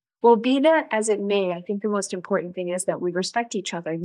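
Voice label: Voice Acting es, female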